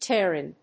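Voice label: "Pterin", English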